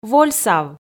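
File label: "вольсав", Komi, female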